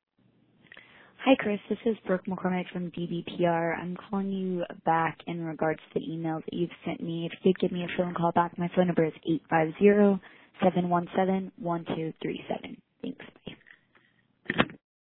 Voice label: voicemail; attorney general office; after sending email; lennar construction problems